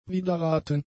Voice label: "widerraten", German; adjectives

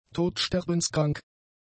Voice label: "todsterbenskrank", German; adjectives

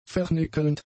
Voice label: "vernickelnd", German; adjectives

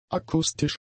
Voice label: "akustisch", german; adjectives

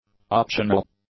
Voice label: "optional", English; adjectives

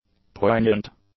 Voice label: "poignant", english; adjectives

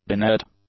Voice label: "dinette", English; nouns

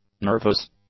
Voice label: "nervous", english; adjectives

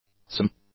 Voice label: "some", english; adjectives

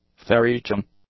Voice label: "farreaching", english; adjectives

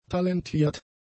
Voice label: "talentiert", German; adjectives

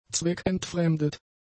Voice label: "zweckentfremdet", German; adjectives

